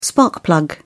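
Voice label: "spark plug", British English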